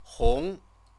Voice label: "hong", Chinese

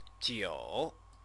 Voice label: "jiu", Chinese; wine; noun

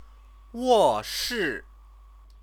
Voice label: "woshi", Chinese

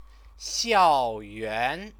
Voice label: "xiaoyuan", Chinese

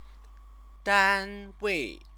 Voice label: "danwei", Chinese; Chinese lessons

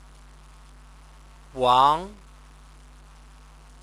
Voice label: "wang", Chinese

